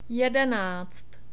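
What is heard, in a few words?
"jedenáct", Czech; numbers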